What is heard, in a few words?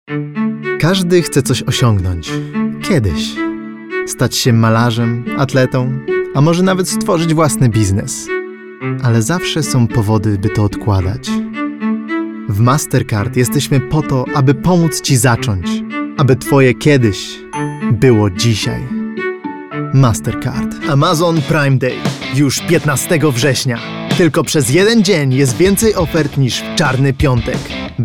Commercial